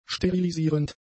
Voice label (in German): "sterilisierend", German; adjectives